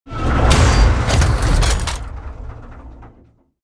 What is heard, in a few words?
voice, dubbing